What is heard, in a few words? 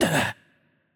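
Hurt Light, Korean; ko KR; bloom minigame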